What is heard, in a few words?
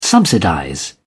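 "subsidize", British English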